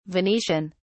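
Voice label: "venetian", British English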